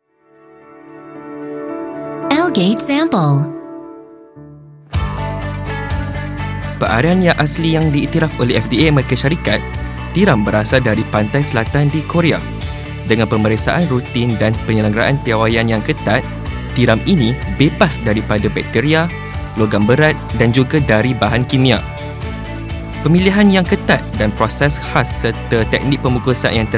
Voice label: 말레이 남 낮은 톤

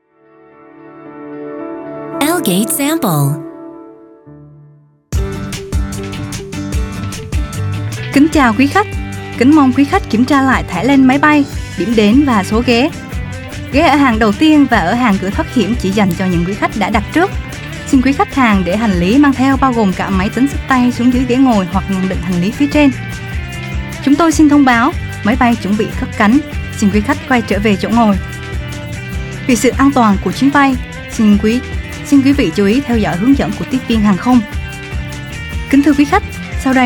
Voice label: 베트남 여 호치민 억양 밝은 톤

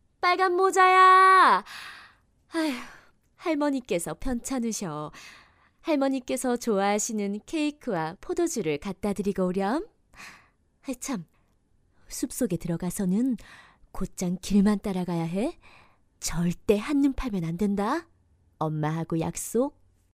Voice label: 30대여자 친절한 엄마

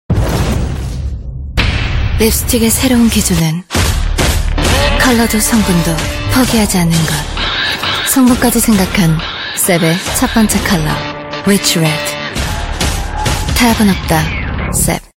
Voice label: 립스틱 시크한 도도한; SEP

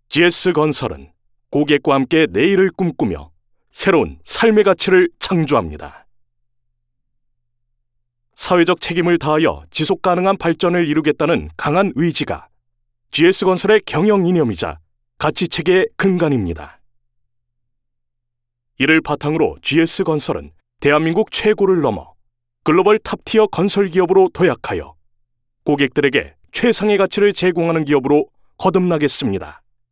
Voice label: 내레이션 힘있고 진중한 홍보

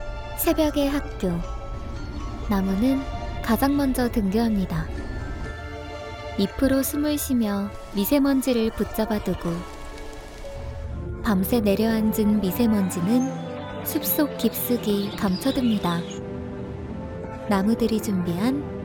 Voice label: 광고 몽환적이고 차분한

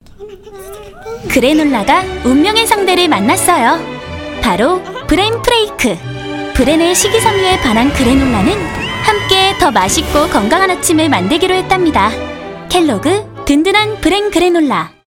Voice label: 광고 밝은 기분좋은 따뜻한 샘플; 켈로그 브랜그래놀라

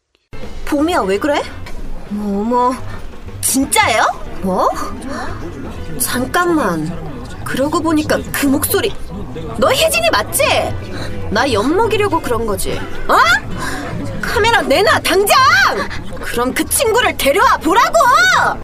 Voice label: 오디오드라마 일진 청소년 여자 10대 신경질적 분노; 스푼

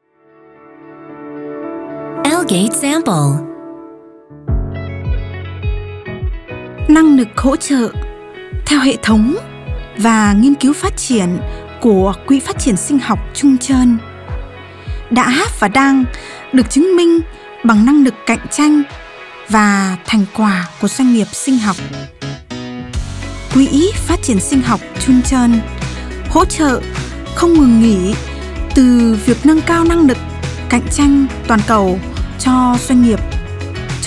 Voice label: 베트남 여 차분한 톤

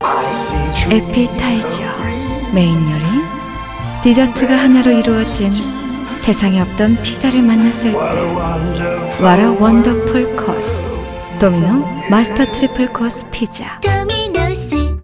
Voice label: 올드한 말투의 광고

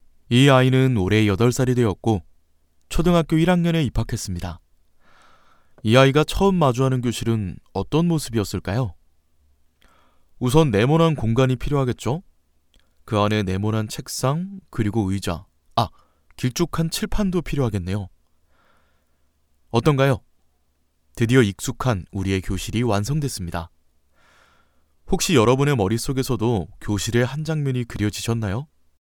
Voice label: 나레이션 다큐 자연스러운

